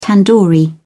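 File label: "tandoori", British English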